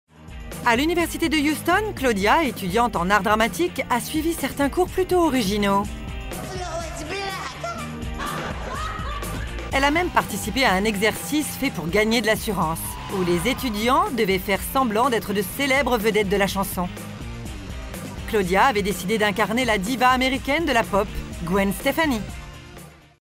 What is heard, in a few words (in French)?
voix off; campus